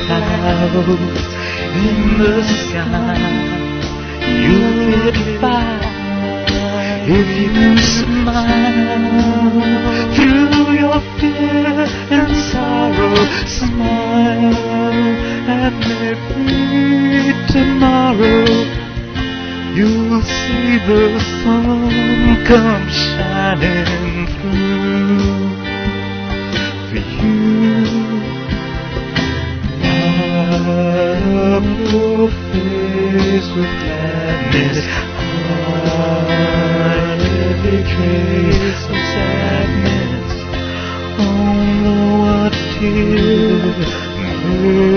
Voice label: smiling